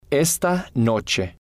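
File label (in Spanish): "esta noche", spanish, male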